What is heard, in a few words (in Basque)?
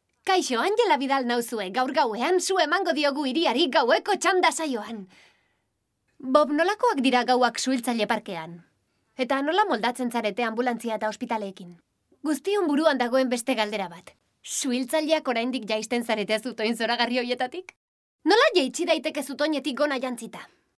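Euskara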